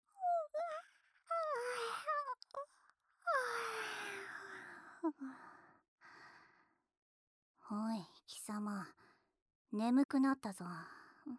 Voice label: 添い寝音声,ASMR; メドゥーサのメメ子【バイノーラル】

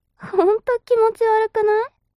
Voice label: mob 女子 台詞

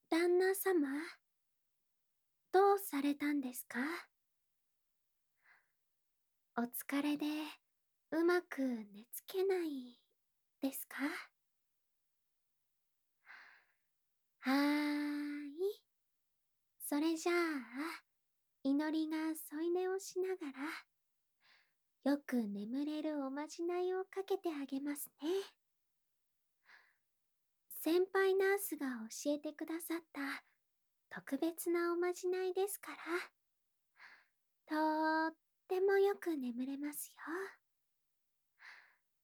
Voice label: 安眠誘導添い寝,ASMR; ナースいのり; 癒し系専属ナースのいのりさんと愛華ママ

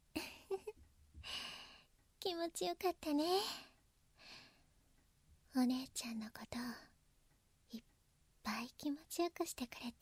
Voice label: ずっと一緒だよ ラブラブピロートーク, ASMR; SE有り

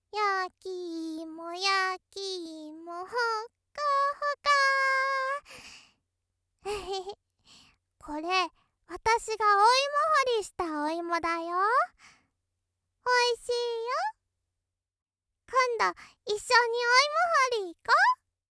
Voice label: ロリ 秋だね

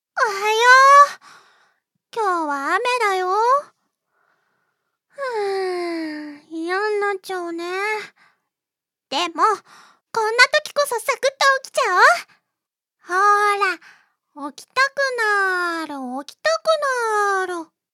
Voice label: 妹, しっかり者の妹; 追加キャラ

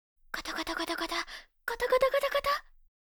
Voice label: 「ガタガタガタガタ、ガタガタガタガタ……」 こわい, オノマトペ; 黒崎オノマトペ

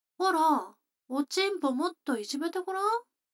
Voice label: 女の子 台詞

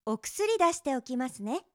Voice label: お薬出しておきますね, 働く女の子

